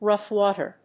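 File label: "rough water", English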